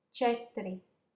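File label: "cetri", Latvian, female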